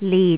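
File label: "lit6", Jyutping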